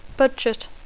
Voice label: "budget", English